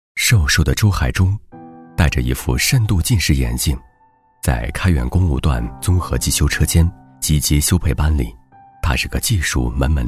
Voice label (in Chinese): 专题 人物 人物, 男